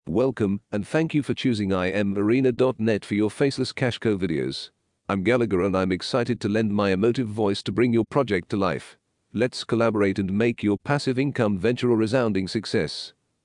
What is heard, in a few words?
British Male